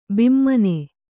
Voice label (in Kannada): "ಭಾಮಿನಿ", Kannada